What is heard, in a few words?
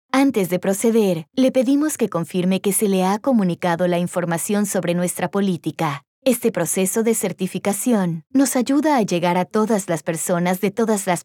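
Spanish elearning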